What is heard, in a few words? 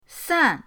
"san4", pinyin